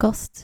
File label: "kast", Dutch